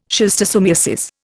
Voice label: "schistosomiasis", Indian